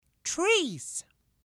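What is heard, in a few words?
"trees", English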